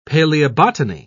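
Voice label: "paleobotany", British English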